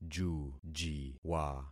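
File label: "jujiwa", concatenated syllables; Affixing experiment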